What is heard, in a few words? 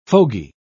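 "fogy", British English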